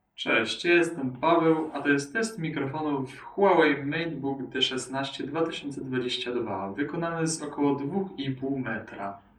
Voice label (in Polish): test mikrofonow odleglosc; huawei matebook d